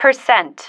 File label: "percent", female